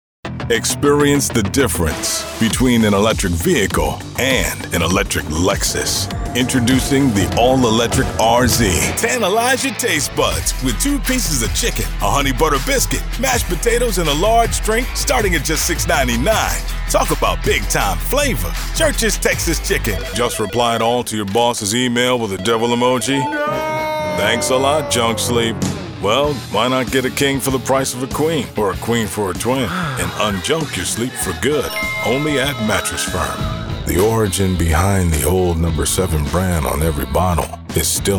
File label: commercial; hollywood